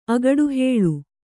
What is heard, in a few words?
"ಅಗಡುಹೇಳು", Kannada; agaḍuhēḷu; to accuse; to charge; to allege.